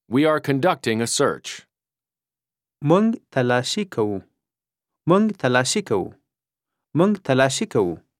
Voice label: Conducting a Search (Pashto); Combat Language